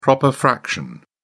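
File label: "proper fraction", British English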